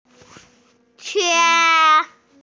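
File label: "juan1", Mandarin Chinese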